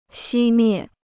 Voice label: "熄灭", Chinese

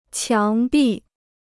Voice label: "墙壁", Chinese

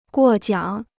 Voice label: "过奖", Chinese

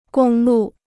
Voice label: "公路", Chinese

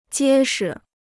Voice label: "结实", Chinese

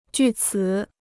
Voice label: "据此", Chinese

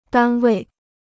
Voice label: "单位", Chinese

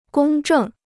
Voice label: "公正", Chinese

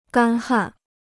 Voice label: "干旱", Chinese

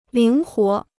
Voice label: "灵活", Chinese

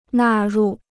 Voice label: "纳入", Chinese

